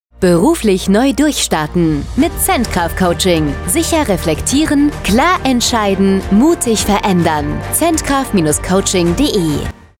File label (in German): Funkspot; Zentgraf-Coaching Organisations- und Personalentwicklung; Wiesbaden